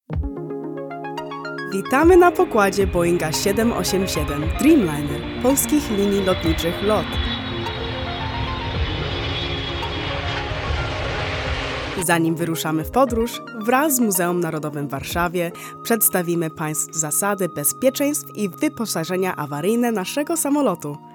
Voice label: Polish, Eastern European, Female, 20s-30s; American Polish

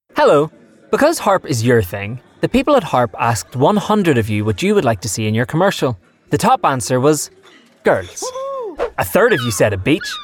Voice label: Commercials; 20s-40s. Male. Northern Irish. Home Studio

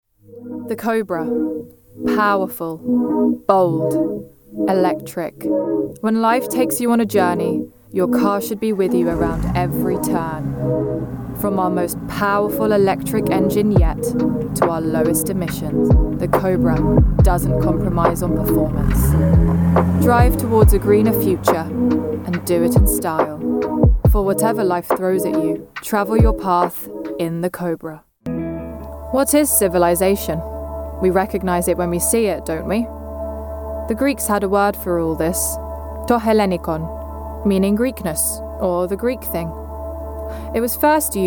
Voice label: English, female; Kurdish; 20s-30s

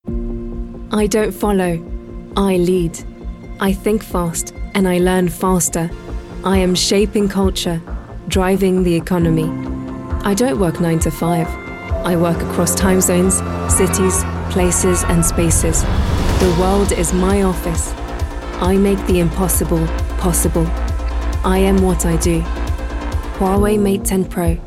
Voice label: ENG

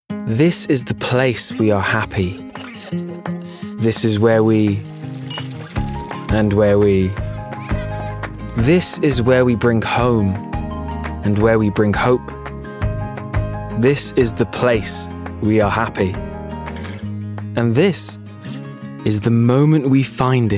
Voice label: Commercials, regional accents

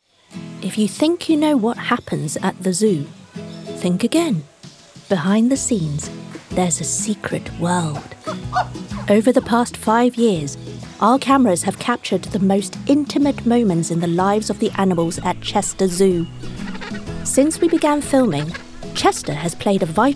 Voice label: Documentary

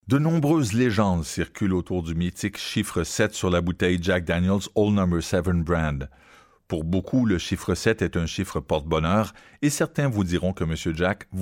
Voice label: French Canadian; French-Canadian, Male, 30s-50s

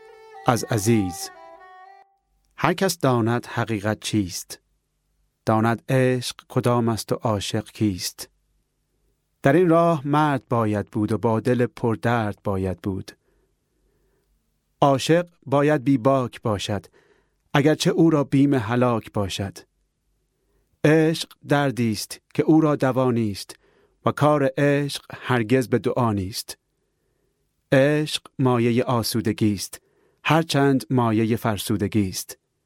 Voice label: Farsi; Farsi, Middle Eastern, Male, 20s-30s

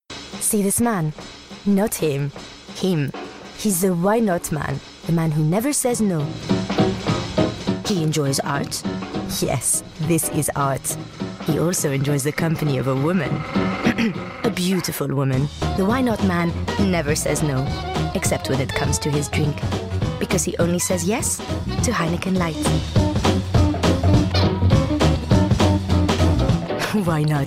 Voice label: English with French accent

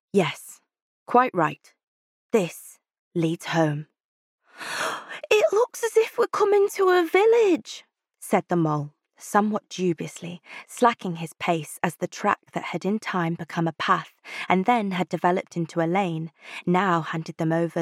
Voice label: Audiobook; regional accents

